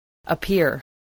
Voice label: "appear", English

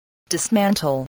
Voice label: "dismantle", spelling

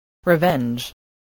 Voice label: "revenge", English

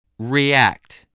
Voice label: "react", english

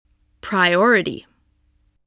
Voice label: "priority", voice, spelling

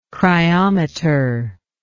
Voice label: "cryometry", english

